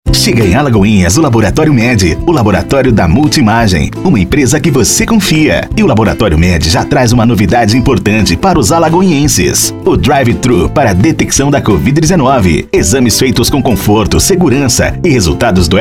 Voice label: Spot Simples Laboratorio

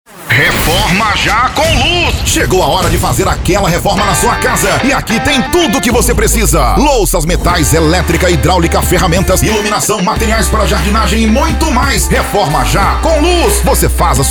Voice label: Spot Especial; Comluz

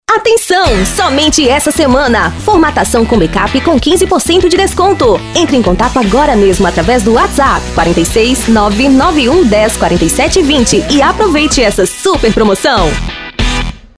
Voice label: Spot; Atencao somente Sunshine

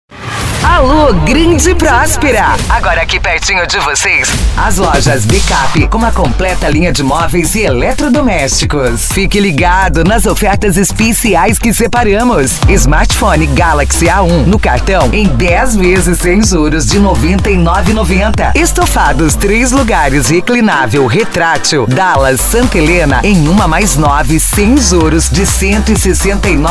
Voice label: Spot Especial; Lojas BKP

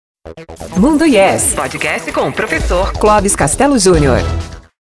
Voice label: Vinheta Falada

